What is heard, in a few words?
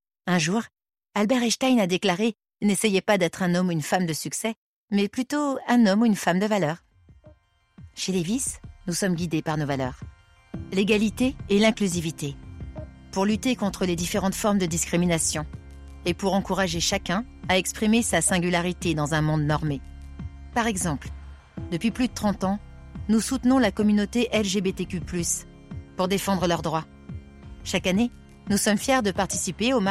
Podcast; voix-off; Levis; Droite decidee